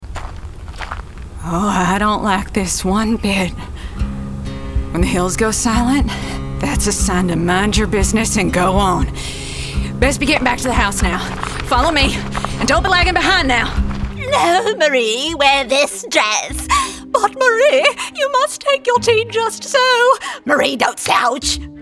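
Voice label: Character, voice over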